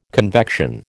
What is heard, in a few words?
"convection", American